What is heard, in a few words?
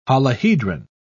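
"holohedron", British English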